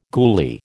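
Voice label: "goolie", American English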